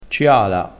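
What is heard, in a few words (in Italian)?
"ciala", dialettale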